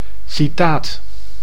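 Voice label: "citaat", Dutch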